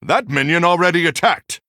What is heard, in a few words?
Male Human ERROR MINION ATTACKED